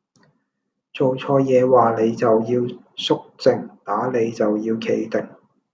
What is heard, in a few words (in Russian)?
Голоса, Гонконгский